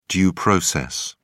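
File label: "due process", British English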